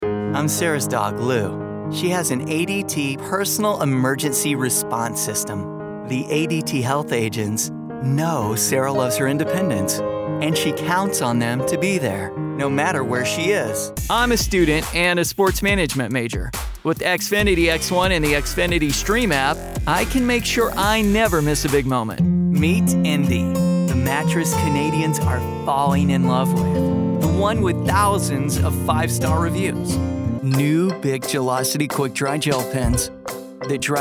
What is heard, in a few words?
Commercial